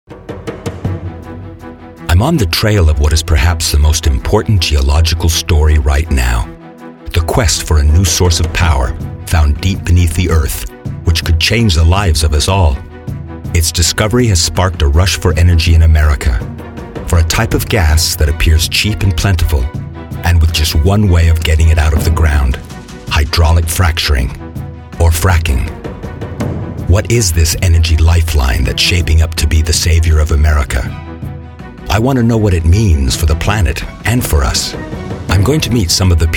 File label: male american narration compilation